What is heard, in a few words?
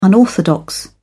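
"unorthodox", British English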